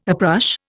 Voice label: "uprush", Indian

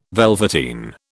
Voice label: "velveteen", British

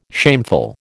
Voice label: "shameful", American

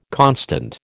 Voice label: "constant", American

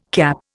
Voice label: "CAP", Indian

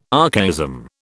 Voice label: "archaism", British

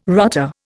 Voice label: "rotter", Indian

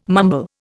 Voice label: "mumble", Indian

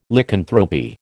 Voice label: "Lycanthropy", American

